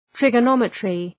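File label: "trigonometry", English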